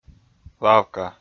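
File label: "лавка", Komi, male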